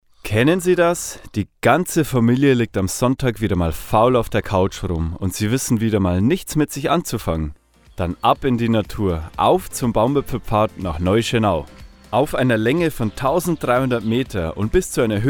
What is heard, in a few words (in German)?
Werbung; Erlebnis Akademie